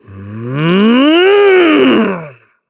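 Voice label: Vox Casting, Korean; KaselB; kingsraid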